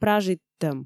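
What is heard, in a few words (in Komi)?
"пражиттӧм", Komi, female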